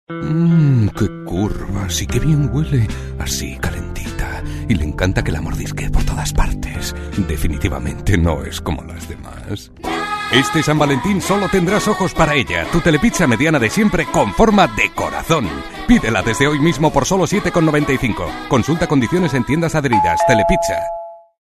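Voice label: Spanish EU, male, commercial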